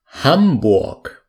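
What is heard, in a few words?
"hamburg", German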